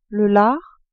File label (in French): "lard", french